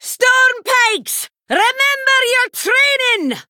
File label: Female Dwarf Play